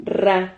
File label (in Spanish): "rra", Silabas